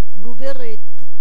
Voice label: "lo beret", Gascon

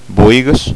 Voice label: "boigas", Gascon

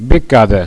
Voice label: "becada", Gascon

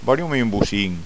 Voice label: "bocin", gasconha

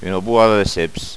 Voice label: "bohada ceps", Gascon

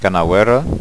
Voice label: "canavera", gasconha

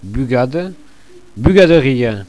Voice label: "bugada", gasconha

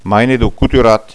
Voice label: "maine coturat", gasconha

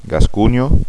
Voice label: "gasconha", gasconha

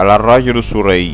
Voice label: "arraja sorelh", gasconha

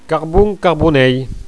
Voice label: "carbon", Gascon

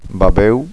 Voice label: "babeu", gasconha

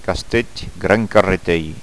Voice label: "gran carreteir", Gascon

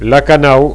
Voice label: "canau", gasconha

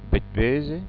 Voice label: "bethvese", gasconha

